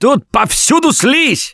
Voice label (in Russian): warn spitter incoming, left4dead2 russian, Survivor Voice; Gambler